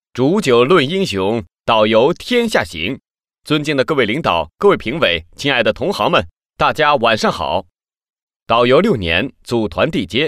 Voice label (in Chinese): 国语男声配音, 专题; 导游自我介绍; 全能录音